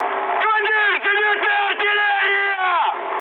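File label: Russian damaged aa mood high, speech; War Thunder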